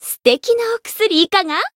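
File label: chant, Japanese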